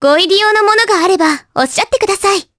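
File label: vox dia Japanese; Mirianne; kingsraid; jp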